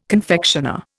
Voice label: "confectioner", Indian